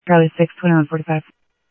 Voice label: Phoenix PD, scanner audio